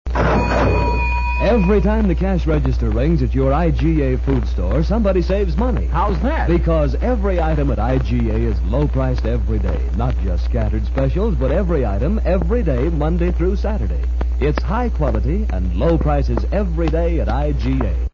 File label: Commercial; KHQ Spokane; IGA